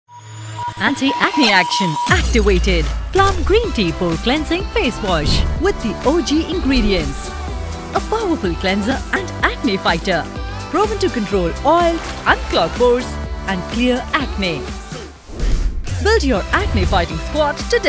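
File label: TVC English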